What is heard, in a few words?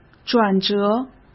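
"转折", Mandarin Chinese; hsk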